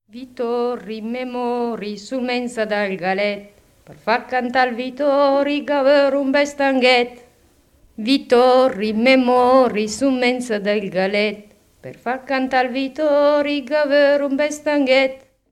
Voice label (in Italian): filastrocca; Vitori, me mori; BRIANZA